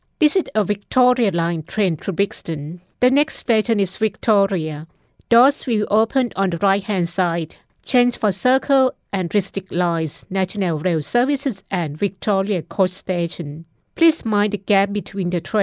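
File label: Thai English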